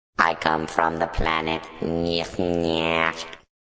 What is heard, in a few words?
Robot says I Come from the Planet Neeshk; Robot Speaks 6